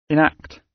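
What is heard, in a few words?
"enact", British English; Crime and punishment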